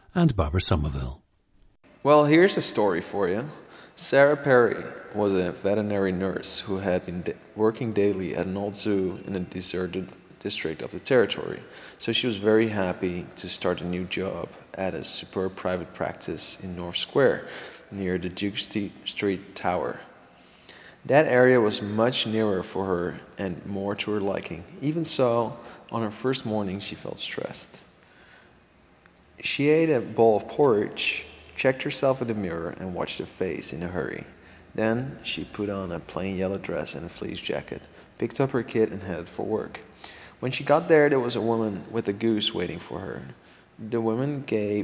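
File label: netherlands, International Dialects of English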